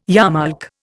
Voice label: "yarmulke", Indian

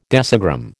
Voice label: "decigram", American

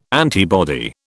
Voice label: "antibody", British

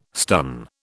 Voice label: "stun", British

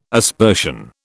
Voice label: "aspersion", British

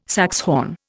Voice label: "saxhorn", Indian

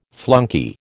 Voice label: "flunkey", American

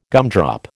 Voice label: "gumdrop", American

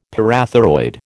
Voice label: "parathyroid", American

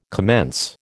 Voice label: "commence", American

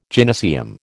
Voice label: "gynaeceum", American

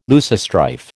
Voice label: "loosestrife", American

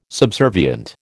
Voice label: "subservient", American

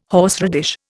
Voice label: "horseradish", Indian

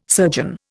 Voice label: "surgeon", Indian

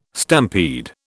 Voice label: "stampede", British

